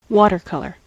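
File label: "watercolor", American English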